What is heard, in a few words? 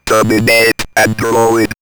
Robot Terminate Android, robot vox; X-Static Goldmine